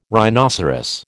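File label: "rhinoceros", American English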